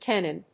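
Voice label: "tenon", English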